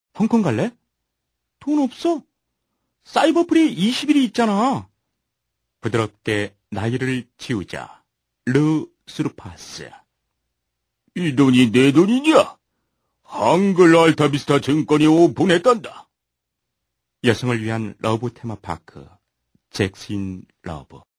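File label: Korean, male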